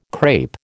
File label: "crape", American